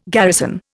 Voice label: "garrison", Indian

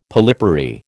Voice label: "polypary", American

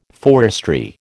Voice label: "forestry", American

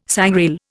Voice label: "sangrail", Indian

